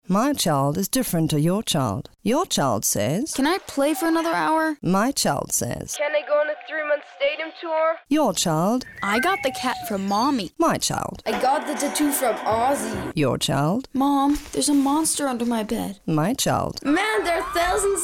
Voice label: englisch modern; music school

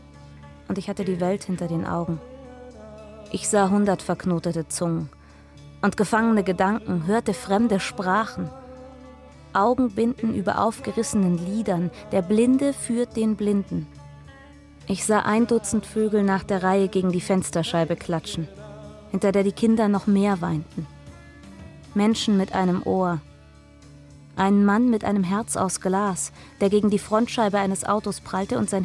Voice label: Hörspiel

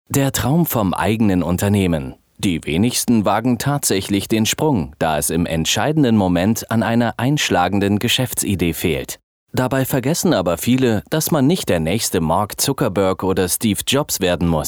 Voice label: Elearning Hoerbuch Existenzgruendung Ansprache junge Unternehmer; Tutorial; German

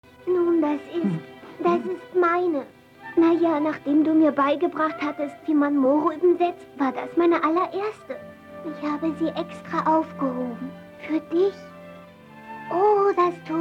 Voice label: walt disney

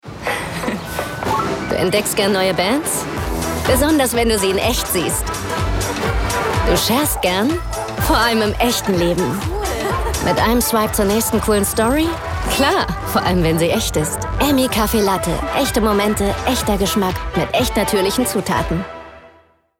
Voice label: WERBUNG; Emmi

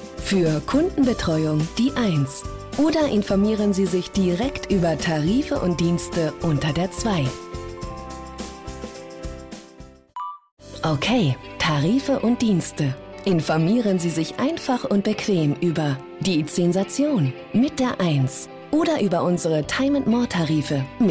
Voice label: guten morgen telefonansage; e-plus

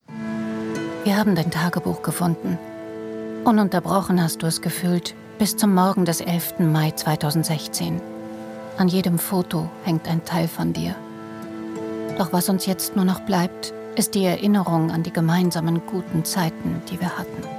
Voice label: abschiedsbrief doku; zdf

